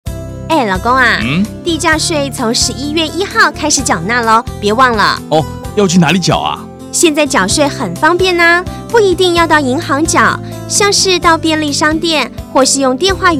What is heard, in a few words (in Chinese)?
地價稅宣導 60秒廣播廣告 國語版